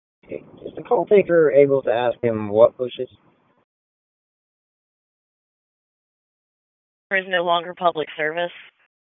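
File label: Raleigh Police Northeast District Dispatch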